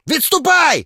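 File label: otstup, Ukrainian; combat ukr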